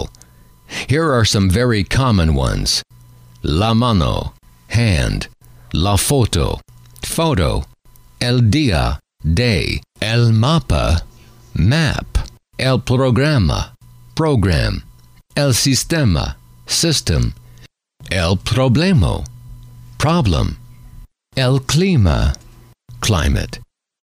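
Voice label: how do I know if a word is masculine or feminine, male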